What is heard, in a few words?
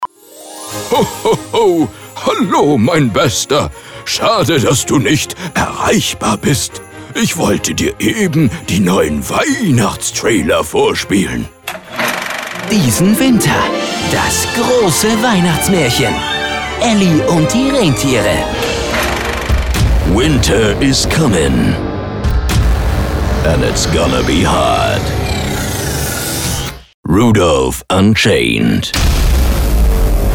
Trailer Voice Over